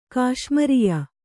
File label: "ಕಾಶ್ಮರ್ಯ", Kannada